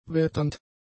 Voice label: "wetternd", German; adjectives